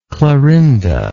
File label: "clarinda", English